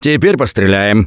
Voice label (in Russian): Take Sniper, left4dead2 russian, Survivor Voice; Gambler